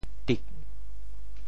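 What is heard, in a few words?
"tik4", Teochew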